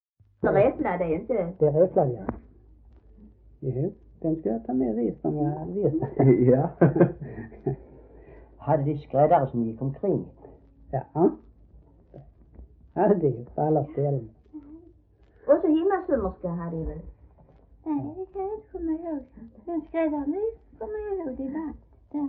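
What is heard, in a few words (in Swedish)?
Äspö Skåne, dialekter svenska sagor; Folkminnen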